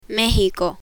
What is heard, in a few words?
"mexico" Spanish; spanish alphabet; learn spanish